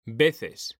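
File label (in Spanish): "veces", Spanish; mekanta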